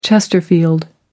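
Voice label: "chesterfield", British English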